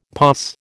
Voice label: "POS", American

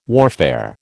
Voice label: "warfare", American

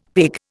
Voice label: "pig", Indian; Dictionary

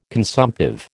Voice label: "consumptive", American

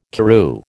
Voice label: "Karoo", American

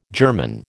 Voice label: "germon", American

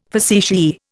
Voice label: "facetiae", Indian

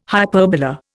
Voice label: "hyperbola", Indian

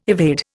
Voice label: "evade", Indian

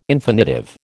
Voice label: "infinitive", American

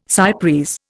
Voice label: "cy pres", Indian

